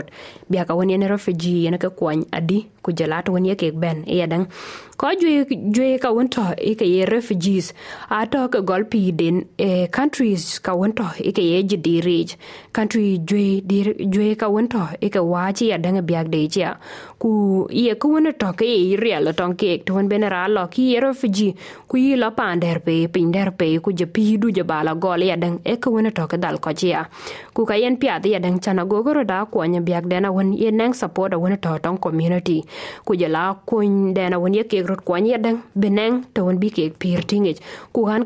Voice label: dinka, podcast; Kuony etɔŋ abakook; SBS Dinka